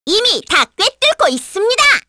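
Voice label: Vox Skill, Korean; Hanus; kingsraid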